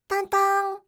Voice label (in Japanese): "tonntoonn", voice